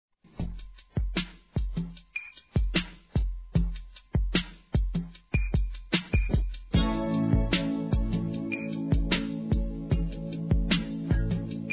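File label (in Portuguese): ROMANT. SUAVE, Telemensagens, Românticas masculino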